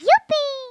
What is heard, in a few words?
"bungee", Greek; Worms Armageddon